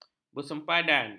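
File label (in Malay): "bersempadan", Malay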